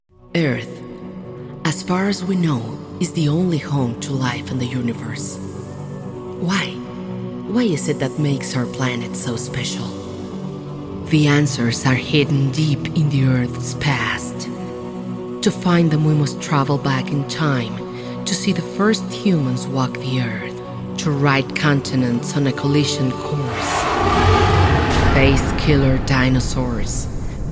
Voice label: F, SP LA, acc eng

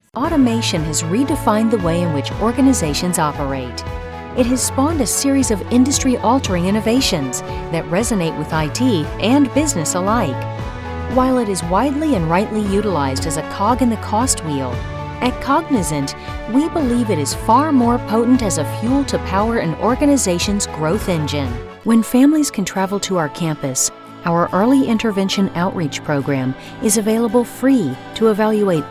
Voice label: F, EN US

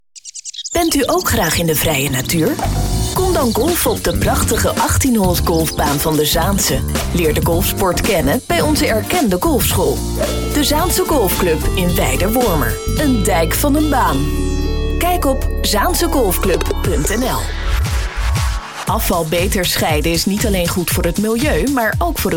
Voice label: F, DU NL

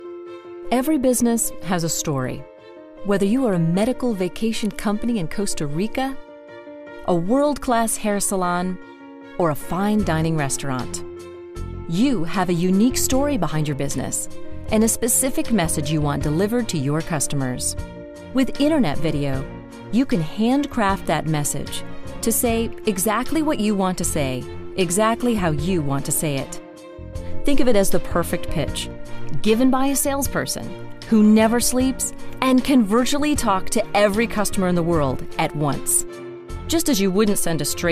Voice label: F, EN US, corp

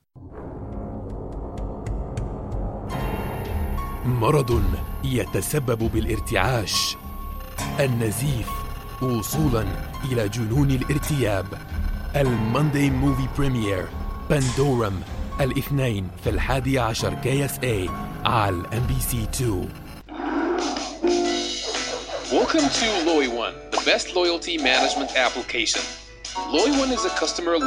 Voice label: M, AR EG

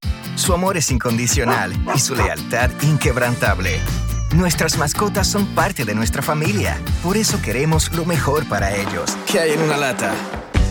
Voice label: M, SP LA, comm

